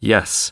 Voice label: "yes", male

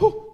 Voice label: "hoo"; Voice; ooh ahh

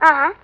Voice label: "uh huh" boy; ooh ahh; uh um

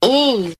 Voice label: "ooh" female; ooh ahh

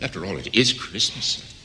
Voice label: after all it is christmas male; Voice; xmas

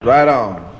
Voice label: "right on" male

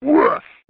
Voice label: "wuss", male

